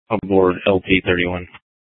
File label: Sheriff, Maricopa County P25; Shrf Lakes